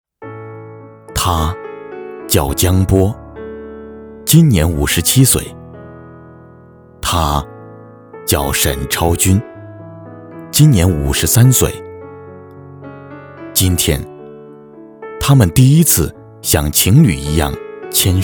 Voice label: 男 纪录片; 30年婚姻

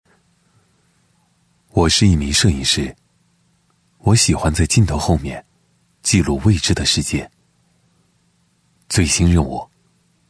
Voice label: 男 旁白; 铁路

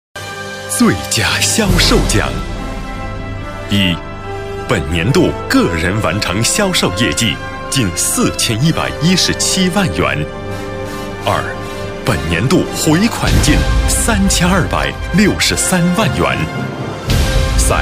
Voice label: 男 颁奖; 个人销售奖

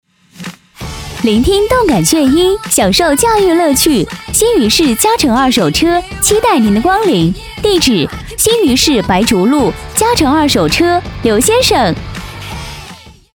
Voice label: 二手车促销

